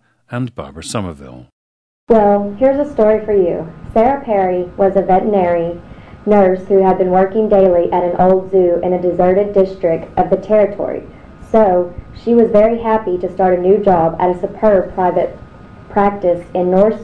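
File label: texas, International Dialects of English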